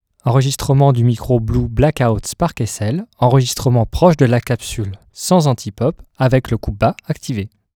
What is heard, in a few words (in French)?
Voix Filtre bas active Proche et sans anti pop; SparkSL